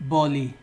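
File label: "boli", Nigerian Pidgin, male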